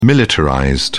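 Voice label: "militarized", British English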